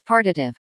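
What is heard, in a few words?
"partitive", English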